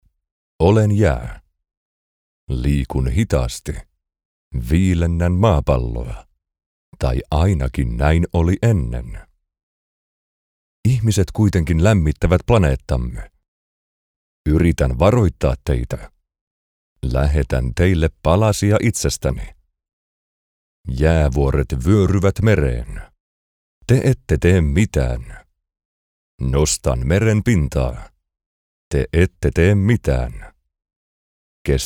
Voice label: finnish narration

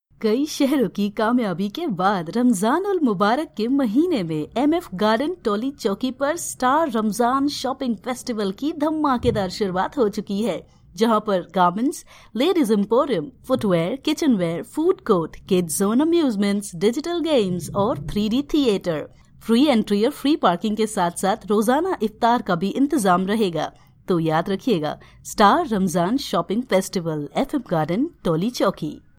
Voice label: urdu commercial; Punjabi; Indien

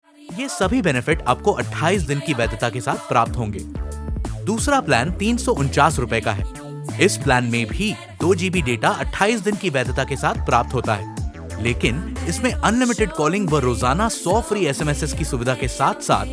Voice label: hindi commercial; Inde

